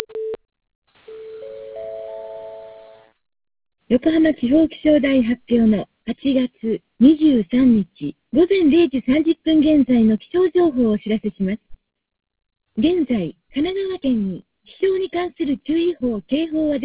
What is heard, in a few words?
gsm hsdpa